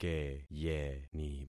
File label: "keyeni", concat syllables; Affixing experiment